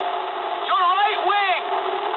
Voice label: eng right wing mood low, speech eng; War Thunder